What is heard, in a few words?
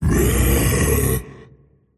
anger; tiny